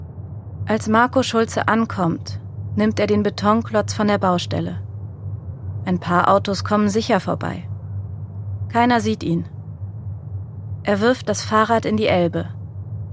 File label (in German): Podcast; Erzählerin; Podcast Drage; Hörspielstudio Berg